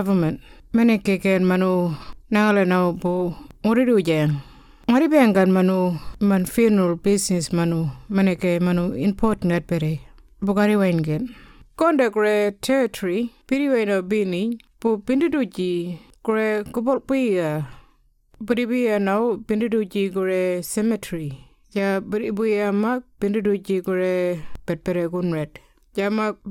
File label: Introduction Burial Notification Kunwinjku; burial and cremation; information recorded in 18 aboriginal languages; Burial and Cremation Act 2022